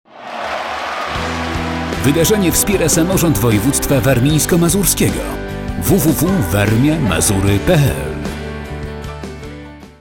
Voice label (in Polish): spot; warmia mazury